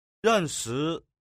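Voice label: "ren shi", Chinese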